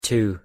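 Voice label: pronunciation "to", English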